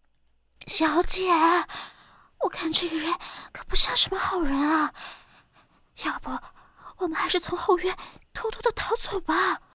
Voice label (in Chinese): 少女古代